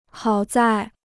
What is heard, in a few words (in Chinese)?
"好在", Chinese